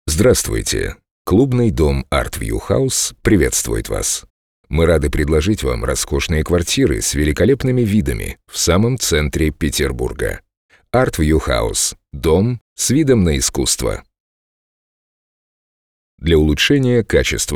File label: M IVR